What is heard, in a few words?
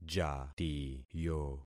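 "jatiyo", concatenated syllables; Affixing experiment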